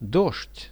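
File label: "rain", Russian; дождь; nature; priroda; RUSSIAN VISUAL DICTIONARY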